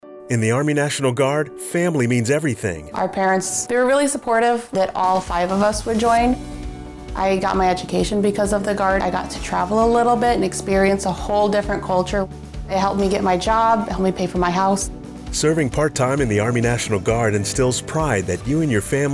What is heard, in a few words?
radio english; mn guard family